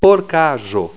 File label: "porkajo", esperanto